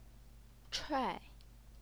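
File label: "chuai", fourth tone, Chinese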